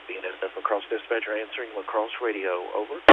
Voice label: Radio, rail; BNSF; La Crosse